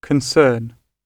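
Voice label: "concern", British English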